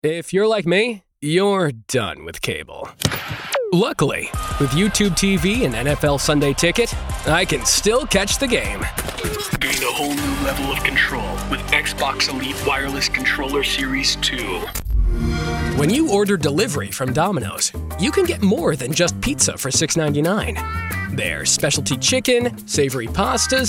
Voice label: Commercial

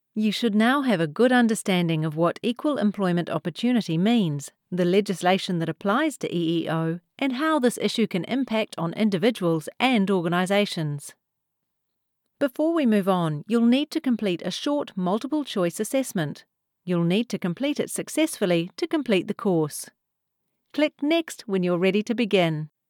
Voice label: E-learning Technical

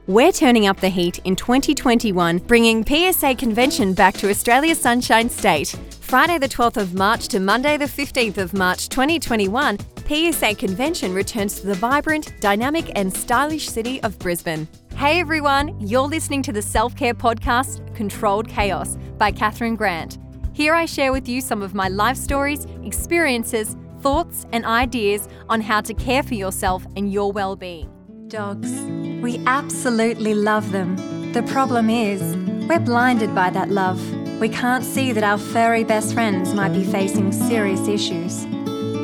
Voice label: Voice Actor

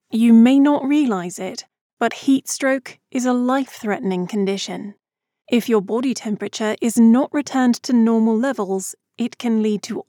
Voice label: UK; Heatstroke

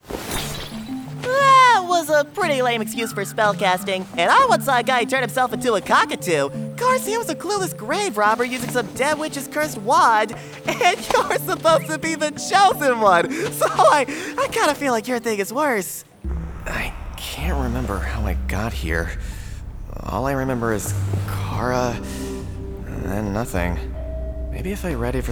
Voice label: Video Game, en-AU

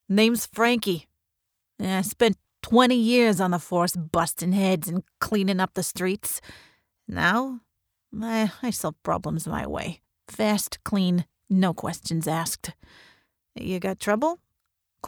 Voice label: Tough 50s New Yorker, new york character

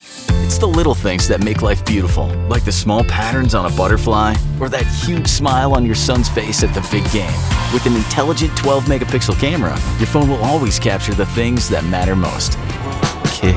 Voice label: Commercial

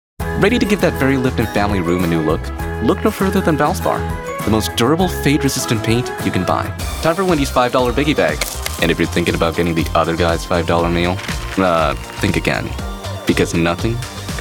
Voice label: Commercial

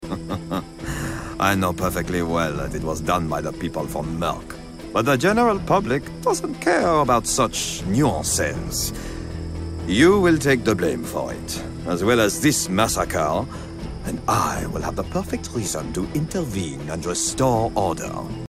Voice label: French Accent, french character

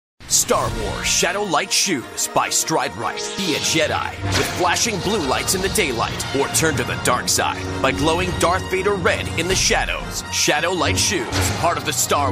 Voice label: bold epic retail; stride rite

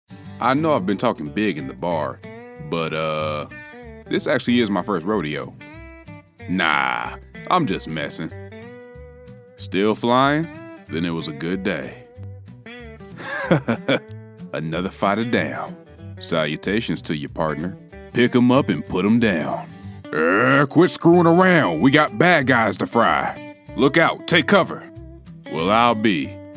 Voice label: Cowboy; en-GB

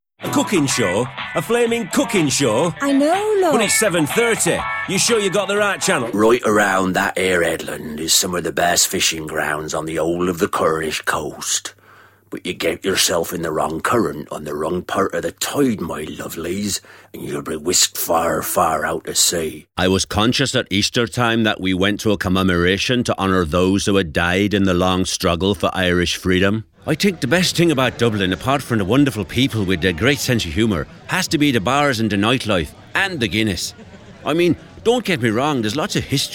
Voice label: VOICEOVER ACCENTS

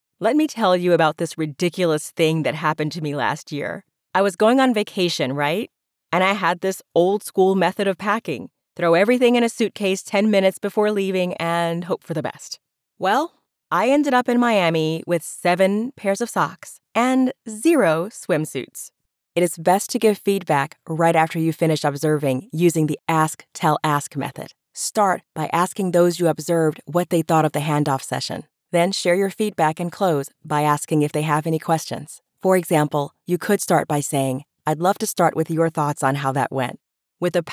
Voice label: Warm friendly informative

